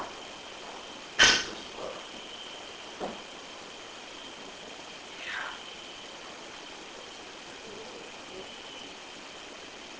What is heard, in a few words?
Unexplained male whisper (Yeah)